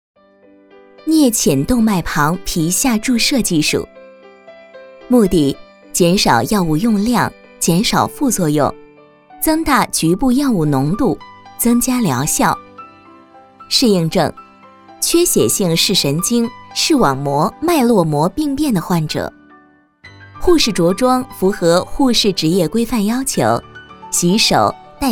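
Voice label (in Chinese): 国语 女声 专题片【教学视频旁白】 大气浑厚磁性 沉稳 积极向上 亲切甜美; 颞浅动脉旁皮下注射操作流程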